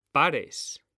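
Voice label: "pares", Spanish; mekanta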